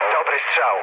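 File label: bomb success, mood low, Polish speech; War Thunder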